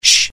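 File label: curious, Italian; mohaa; dialogue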